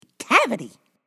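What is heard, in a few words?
"cavity", English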